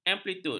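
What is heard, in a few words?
"amplitud", Malay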